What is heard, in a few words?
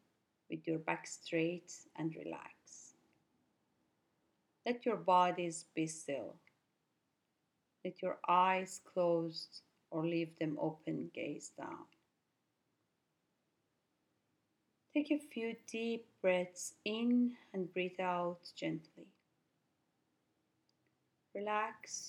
Mini meditation mindful breathing